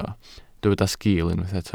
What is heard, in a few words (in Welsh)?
"sgil", Welsh